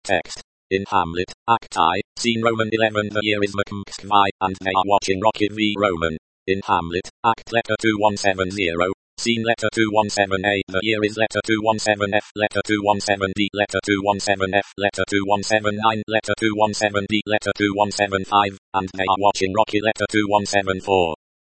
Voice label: espeak